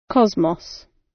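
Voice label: "cosmos" British; Space, the planets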